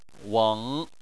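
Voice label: "weng", Chinese, third tone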